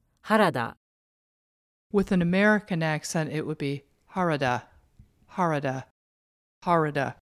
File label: "Harada", pronunciation